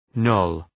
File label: "knoll", Προφορά